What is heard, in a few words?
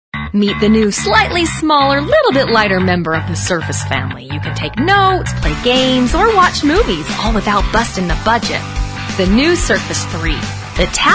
Commercial